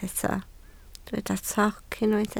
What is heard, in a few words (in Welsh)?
"toc", Welsh